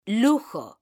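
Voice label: "lujo", Spanish, female; mekanta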